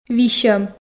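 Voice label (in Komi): "висьӧм", Komi, female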